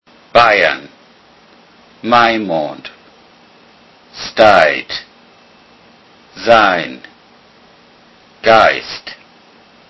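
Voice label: diphthong ay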